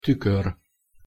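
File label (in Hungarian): "tukor" Hungarian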